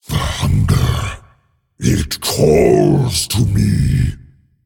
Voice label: Male Demon Play